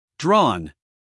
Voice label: "drawn", US English, male; everyone-can-use-english